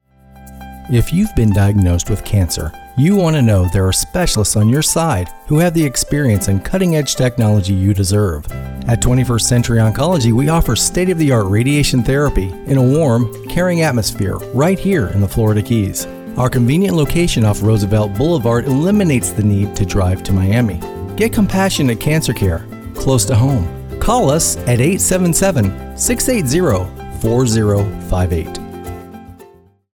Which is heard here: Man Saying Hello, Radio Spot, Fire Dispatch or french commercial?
Radio Spot